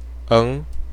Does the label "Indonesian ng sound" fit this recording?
Yes